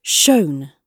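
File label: "shown", British English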